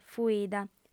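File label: "fuida", Sardinian, female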